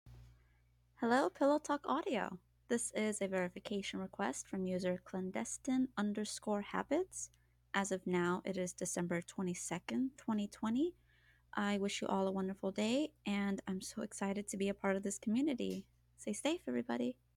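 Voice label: Female Verification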